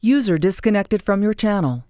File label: neutral connection disconnected current channel; TeamSpeak 3